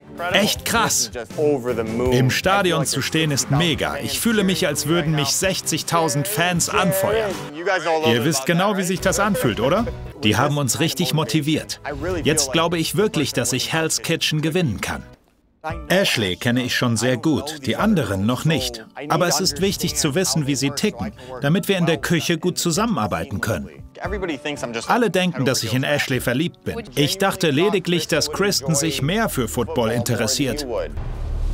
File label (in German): Voice-Over, Doku, Off, German; Hell's Kitchen